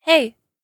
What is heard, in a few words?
girl hey ringtone; notification sounds